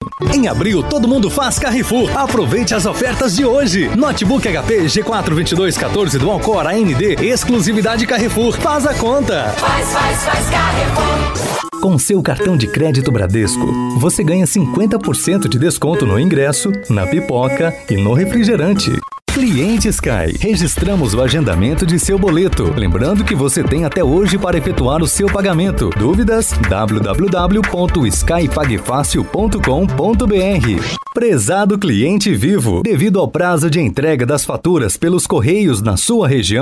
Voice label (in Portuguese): demo locucao brasil